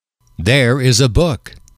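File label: "there is a book", English, male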